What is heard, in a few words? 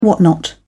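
"whatnot", British English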